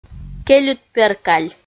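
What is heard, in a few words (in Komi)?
"кельыдперкаль", Komi, female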